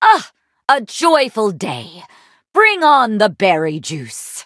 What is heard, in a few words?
levelup, en; cookie run